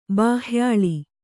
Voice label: "ಬಾಹ್ಯಾಳಿ", Kannada

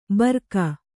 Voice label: "ಬರ್ಕ", Kannada

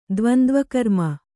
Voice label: "ದ್ವಂದ್ವಕರ್ಮ", Kannada

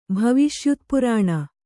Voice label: "ಭವಿಷ್ಯುತ್ಪುರಾಣ", Kannada

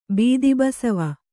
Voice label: "ಬೀದಿಬಸವಿ", Kannada

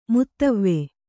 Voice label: "ಮುತವ್ವೆ", Kannada

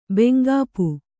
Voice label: "ಬೆಂಗಾಪು", Kannada

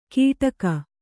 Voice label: "ಗಿಡಗ", Kannada

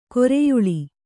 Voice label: "ಕರಿಯಾಲೆ", Kannada

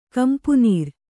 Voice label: "ಕಂಪುನೀರ್", Kannada

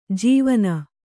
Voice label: "ಜವಾನಿ", Kannada

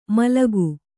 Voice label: "ಮಲಕು", Kannada

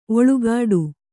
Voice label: "ಓಲೆಗೊಡೆ", kannada

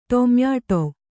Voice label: "ಟೊಮ್ಯಾಟೊ", Kannada

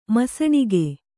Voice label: "ಮುಸಿನಗು", Kannada

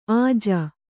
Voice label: "ಅಜೆ", Kannada

